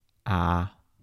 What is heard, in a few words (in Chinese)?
"阿", 台城, 男; 台山话